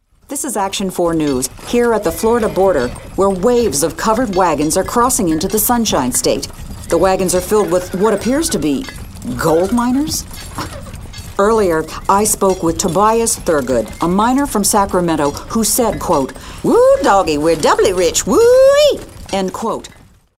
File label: News Reporter